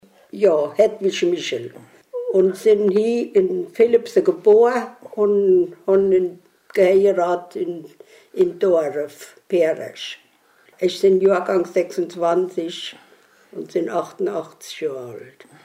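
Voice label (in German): vorstellung, O-Ton Hunsrück; Wohnroth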